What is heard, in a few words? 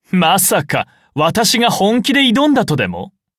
defeat ja; cookie run